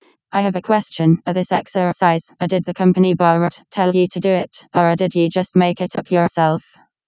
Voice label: Building the voice only from read speech